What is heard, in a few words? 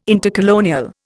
"intercolonial", Indian